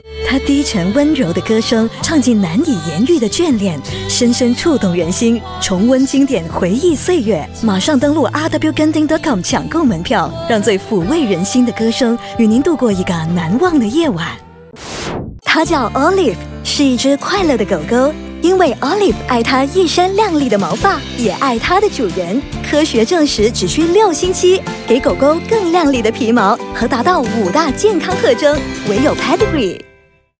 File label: Warm; Genting Pedigree